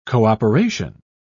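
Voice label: "co-operation", English, British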